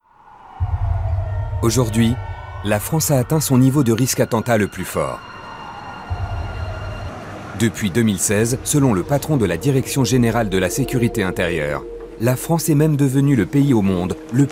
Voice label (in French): Narration Docu; Démineurs